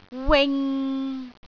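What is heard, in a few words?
"wing6", Cantonese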